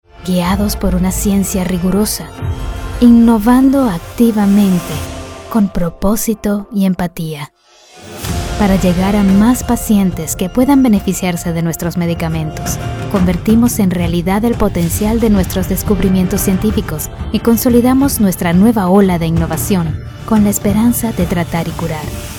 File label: Medical Narration in Neutral Spanish; Latin American Spanish